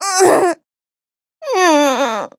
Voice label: defeat, en; Cookie Run

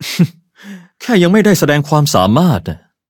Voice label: defeat, th; Cookie Run

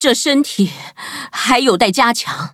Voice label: defeat, zh-hant; cookie run

